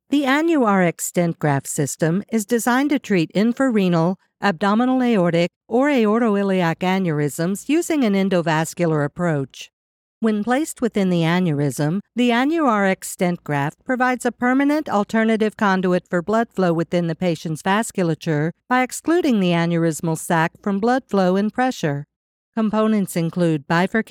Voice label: Medical, no music, Approachable · Confident · Knowledgeable; AneuRX; Warm · Inviting · Engaging